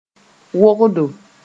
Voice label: "wogdo", moore